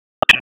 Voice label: PS TAC 29, P25 recordings; wake

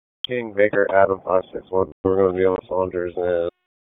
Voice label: Police Downtown District Disp, p25; Raleigh; wake

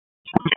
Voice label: Raleigh Police Northeast District Tac, p25; wake

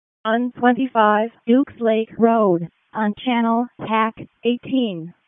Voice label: WC EMS ALERT, p25

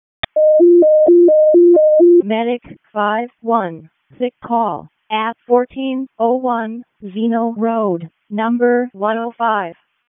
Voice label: EMS ALERT; wake; p25

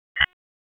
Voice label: Police North District Tac 1, p25; Raleigh; wake

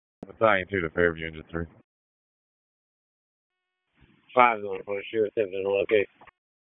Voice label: FIRE OPS, p25

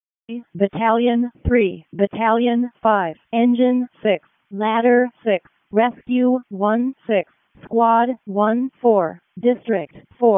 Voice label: WC EMS ALERT, p25

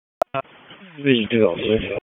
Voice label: FIRE OPS, p25; FIRE OPS 1; wake p25

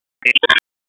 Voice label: Police North District Tac 1, p25; wake p25

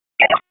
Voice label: PS TAC, p25; wake p25

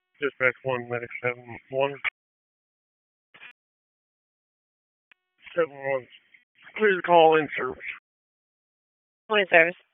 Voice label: WC EMS DISP1, P25 recordings; wake

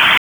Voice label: Raleigh Police LE Ops 5, P25 recordings

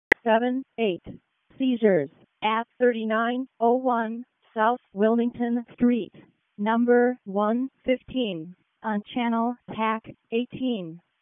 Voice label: EMS ALERT

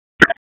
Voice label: FIRE OPS, p25; FIRE OPS 3; wake

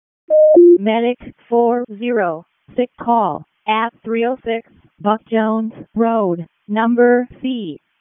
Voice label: EMS ALERT, p25; wake

